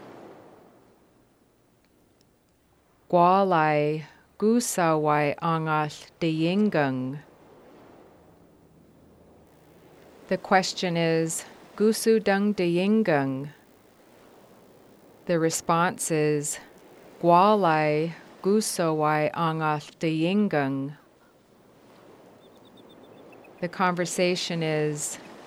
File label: Haida Cellphone, gwáalaay gúusaawaay (cell phone); Haida 8 Cellphone